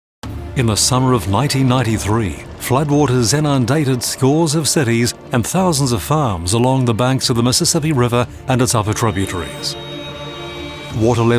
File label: Narration